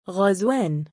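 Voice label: male "ghazwaan", Arabic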